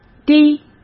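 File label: "堤", Mandarin Chinese; chinese flashcards; hsk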